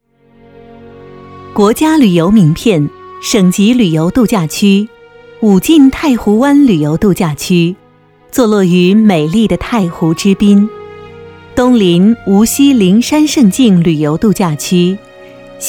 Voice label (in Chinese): 宣传片 旅游 景区介绍 大气